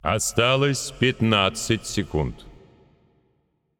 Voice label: Announcer Russian Time Remaining; paladins